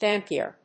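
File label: "Dampier", English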